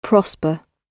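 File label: "prosper", British English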